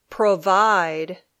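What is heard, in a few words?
"provide", English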